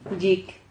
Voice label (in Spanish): "llic", Silabas